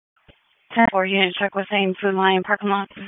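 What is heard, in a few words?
Police Dispatch; Wake Forest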